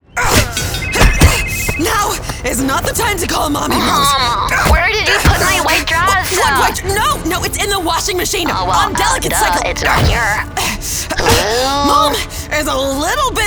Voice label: women animation; Deep, smooth, dynamic, versatile, warm, maternal, rich, and authentic.